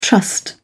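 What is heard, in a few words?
"trust", British English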